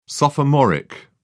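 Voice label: "sophomoric", British English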